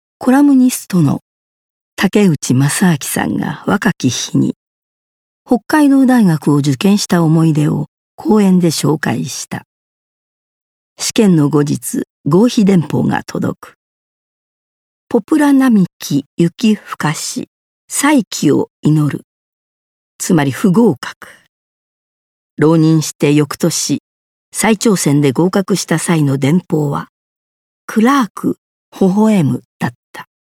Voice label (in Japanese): 朗読